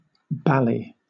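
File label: "bally", British English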